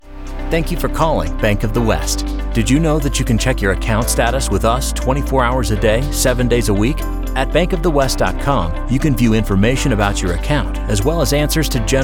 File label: IVR